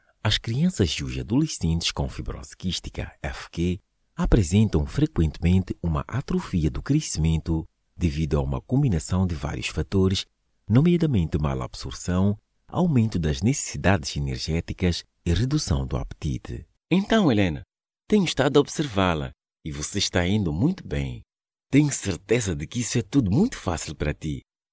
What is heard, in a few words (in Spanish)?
PT Voz masculina media